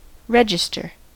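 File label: "register", US English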